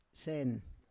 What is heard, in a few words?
"sen", Roon